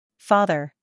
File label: "father", en us female